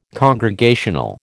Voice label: "congregational", American